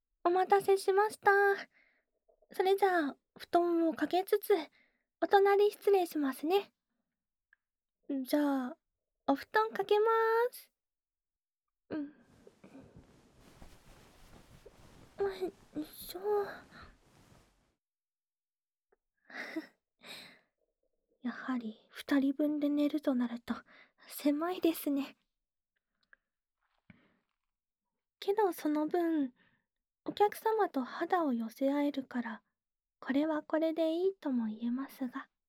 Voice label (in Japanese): ゆったり 添い寝